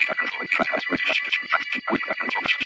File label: voice granules